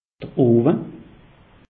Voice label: "owe", alsace; meteo

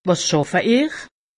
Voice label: "schaffe", alsace; medecine

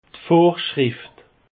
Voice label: "vorschrift", alsace; peche

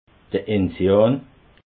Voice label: "enzian", alsace

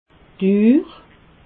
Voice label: "uhr", alsace

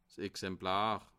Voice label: "exemplar", alsace

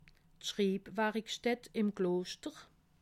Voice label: "schrib warikstatt", alsace

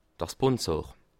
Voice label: "sponsor", alsace

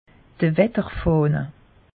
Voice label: "watter fahne", alsace; meteo

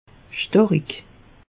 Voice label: "starik", alsace; meteo

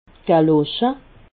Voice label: "gallosche", alsace

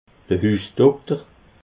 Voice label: "husdokter", alsace

